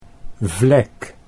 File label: "vlek", Dutch